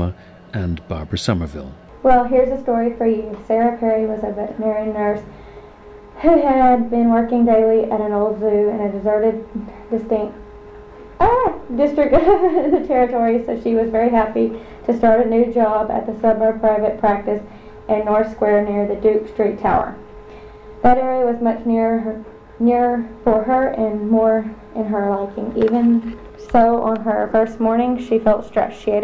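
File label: texas, International Dialects of English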